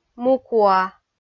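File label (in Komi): "мукуа", Komi, female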